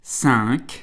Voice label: number 5, French

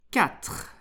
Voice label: number 4, French

